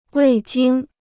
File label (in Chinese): "味精", Chinese